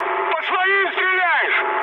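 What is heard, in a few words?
player friendly fire, mood med, Russian; War Thunder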